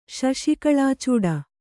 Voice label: "ಶಶಿಕಳಾಚೂಡ", Kannada

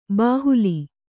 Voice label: "ಬಾಹುಲಿ", Kannada

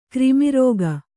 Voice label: "ಕ್ರಿಮಿರಾಗ", Kannada

